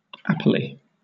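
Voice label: "apply", British English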